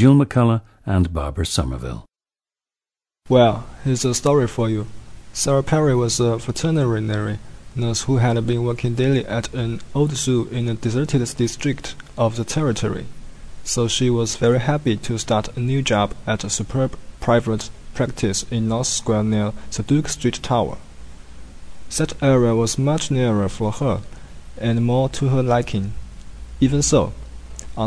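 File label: jiangsu, dialects of English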